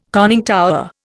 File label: "conning tower", Indian